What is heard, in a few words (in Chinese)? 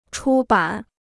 "出版", Chinese